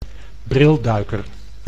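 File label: "brilduiker", Dutch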